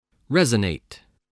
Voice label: "resonate", voice spelling

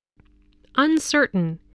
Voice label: "uncertain", English spelling voice

